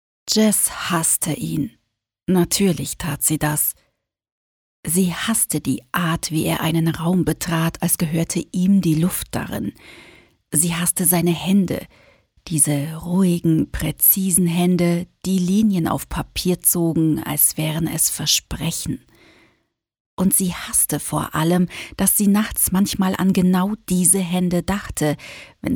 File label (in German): Hoerprobe, sprecher